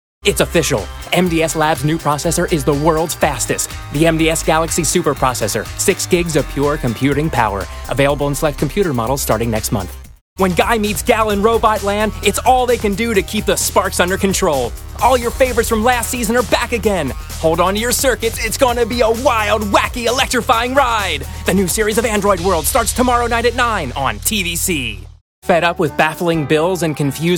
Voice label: US Tvc